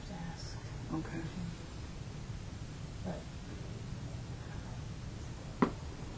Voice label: evp "thank you"